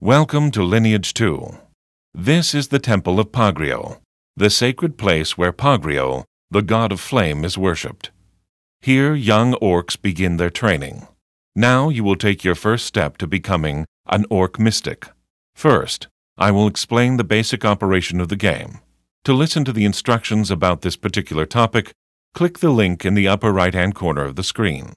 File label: tutorial voice, eu; lineage2